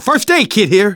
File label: spot first aid, survivor voice manager; Left 4 Dead 2; left4dead2 dlc3